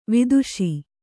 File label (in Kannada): "ವಧಿಸು", Kannada